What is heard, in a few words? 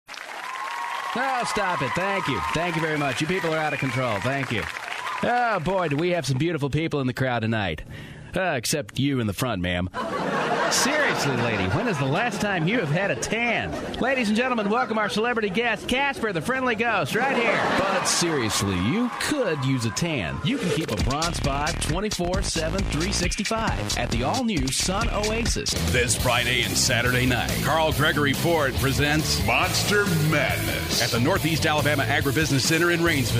Commercial Male